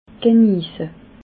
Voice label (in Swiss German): "geniesse", alsace